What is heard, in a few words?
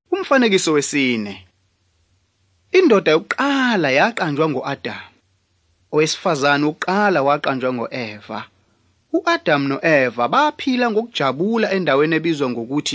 zulu bible; good news